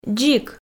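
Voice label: "дзик", Komi, female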